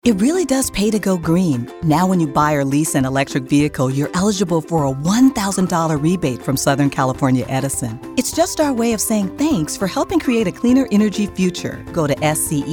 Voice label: radio

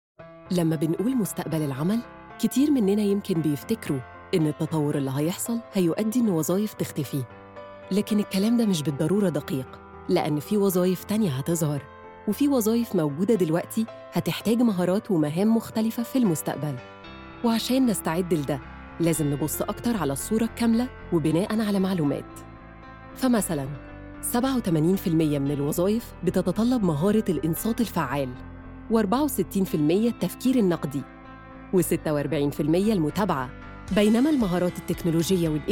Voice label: with Music

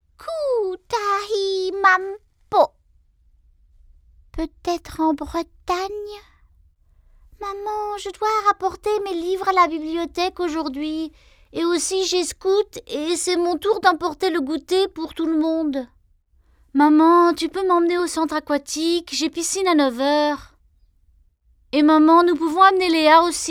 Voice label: Children voices in french

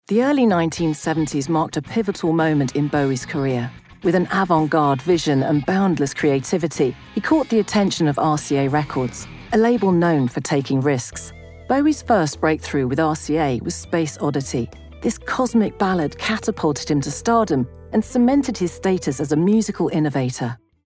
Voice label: Narration MUSIC DOC

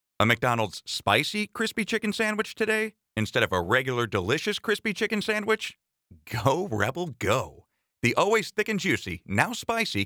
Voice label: Commercial Dry

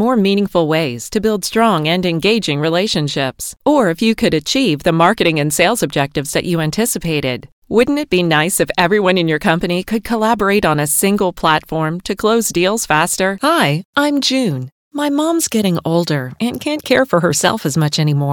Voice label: EXPLAINER VIDEO

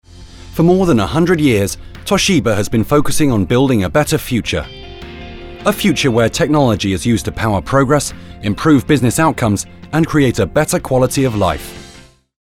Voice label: Corporate sample; toshiba

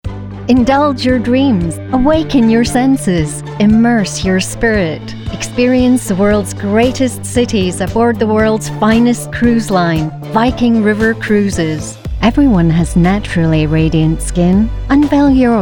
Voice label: Commercial

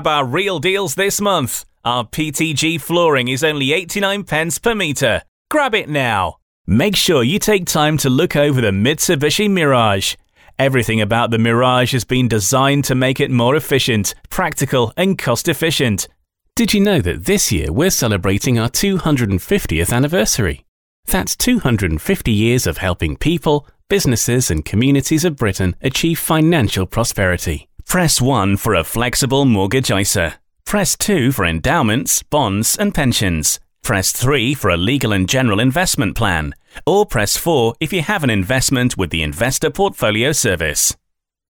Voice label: On Hold IVR

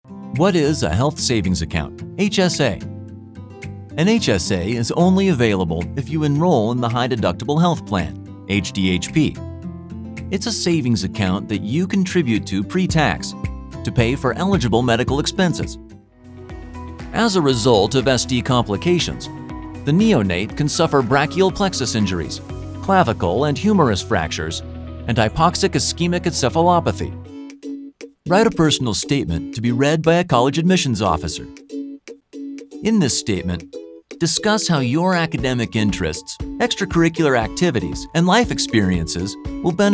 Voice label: E-learning Narration Compilation

